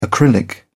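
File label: "acrylic", British English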